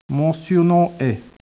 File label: speech, French